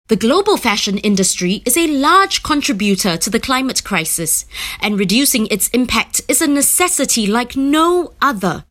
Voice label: Asian English Climate Change VO sample

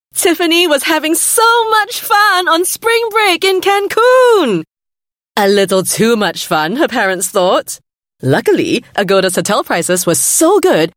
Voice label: Asian English spring break; Agoda

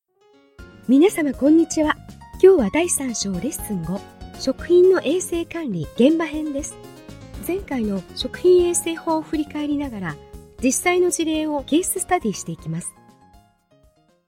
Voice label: Japanese E-Learning, Japanese Female

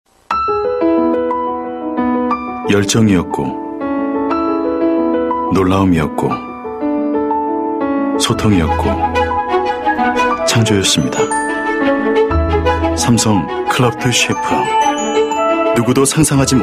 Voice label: Korean Thoughtful Read

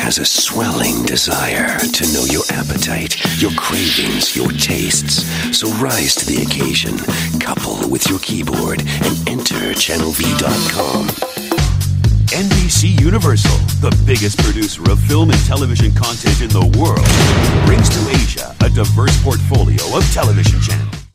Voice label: US English General Entertainment

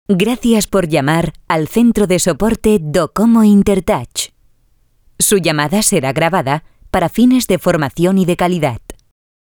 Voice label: Spanish European Clean VO

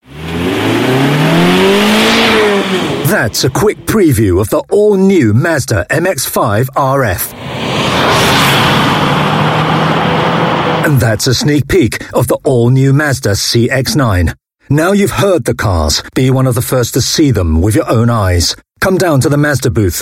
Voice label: UK English, Male; Mazda 2017 TVC

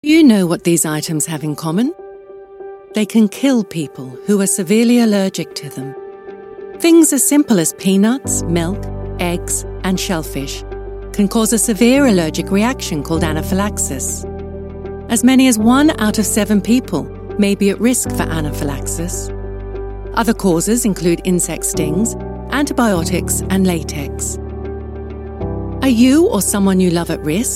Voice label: UK English; Allergies

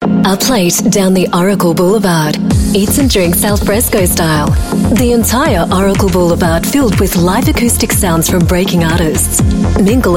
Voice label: AU MidAtlantic English, Australian Female; Oracle

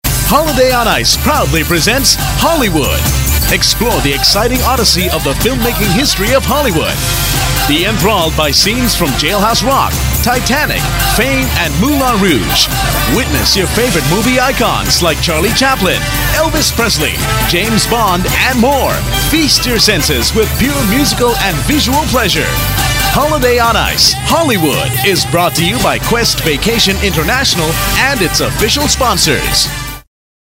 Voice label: Mandarin Ad

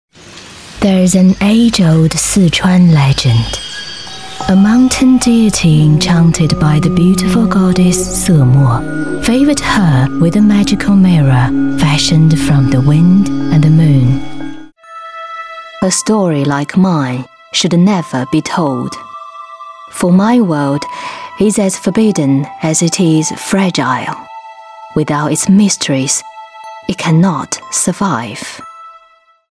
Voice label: Mandarin Female Accented English; China

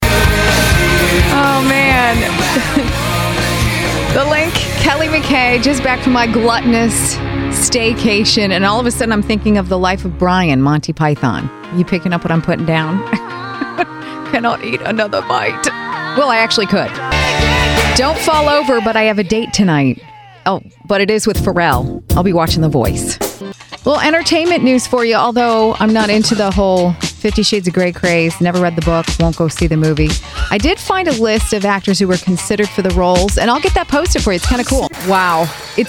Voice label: US English On Air

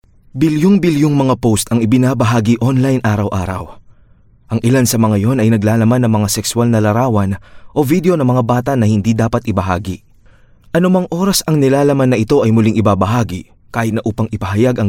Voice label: Male Tagalog Voice

